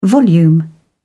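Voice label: "volume", British English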